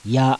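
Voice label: kana "YA", Japanese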